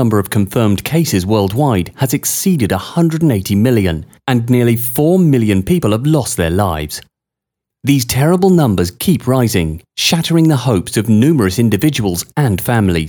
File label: Covid VO 配音片段